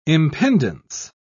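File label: "impendence", British English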